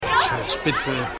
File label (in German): Schueler; The Wire